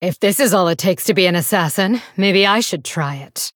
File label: Female Blood Elf; Hero; Valeera; Mission3 Exchange